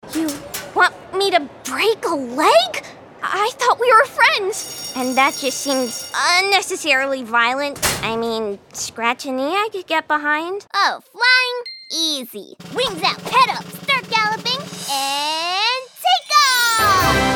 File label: Animation